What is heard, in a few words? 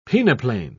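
"peneplane", English, British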